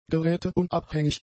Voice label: "geräteunabhängig", German; adjectives

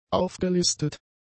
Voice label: "aufgelistet", German; adjectives

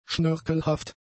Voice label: "schnörkelhaft", German; adjectives

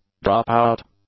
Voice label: "dropout", English; nouns

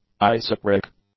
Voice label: "isochoric", english

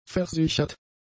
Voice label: "versichert", German; adjectives

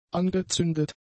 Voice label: "angezündet", German; adjectives

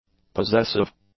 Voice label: "possessive", english; adjectives

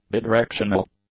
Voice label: "bidirectional", English; adjectives

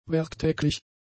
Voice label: "werktäglich", German; adjectives

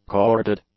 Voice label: "corded", English; adjectives

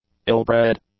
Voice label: "ill-bred", English; adjectives

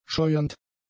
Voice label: "scheuernd", German; adjectives

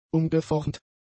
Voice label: "umgeformt", German; adjectives

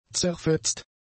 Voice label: "zerfetzt", German; adjectives

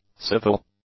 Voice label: "civil", English; adjectives

